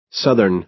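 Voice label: "southern", American English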